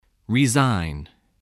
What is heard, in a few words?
"resign", English